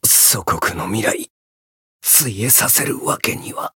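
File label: retire, Japanese; claude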